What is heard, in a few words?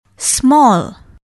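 "small", English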